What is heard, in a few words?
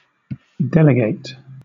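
"delegate", British English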